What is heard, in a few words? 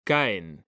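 "caen", Spanish, male; mekanta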